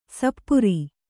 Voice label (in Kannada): "ಸಪೂರ", Kannada